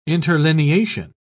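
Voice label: "interlineation", English, British English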